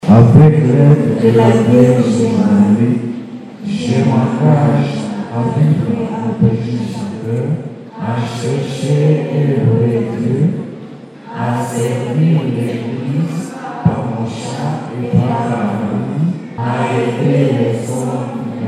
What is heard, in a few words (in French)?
EXTRAIT FR; choeur de la pentecôte; choristes; ENGAGEMENT PC MUSIMBA; 74 choristes du choeur de la pentecote qui fete ses 10 ans d'existence